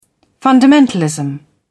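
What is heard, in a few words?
"fundamentalism", British English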